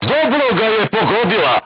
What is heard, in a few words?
voice message direct hit, crew tanks serbian